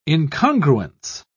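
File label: "incongruence", British English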